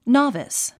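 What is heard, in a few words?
"novice", English word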